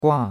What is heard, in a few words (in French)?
"gua4", chinois; Cours-de-Chinois